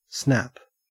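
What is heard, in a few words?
"snap", Australian English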